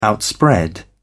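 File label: "outspread", English, British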